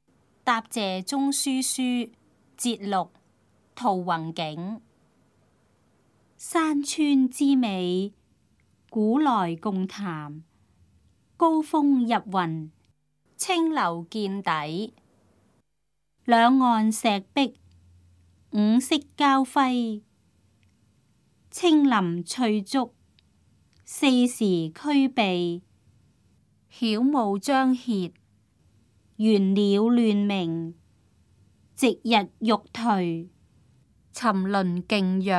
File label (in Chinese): cantonese; 答謝中書書（節錄）; 粵語